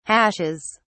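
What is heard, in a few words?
"hashes", British English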